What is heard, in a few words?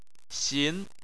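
"xin", Chinese, second tone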